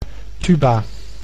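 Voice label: "tuba", Dutch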